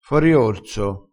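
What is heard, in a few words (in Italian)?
"foriorso", vocabolario dialettale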